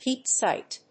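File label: "peep sight", English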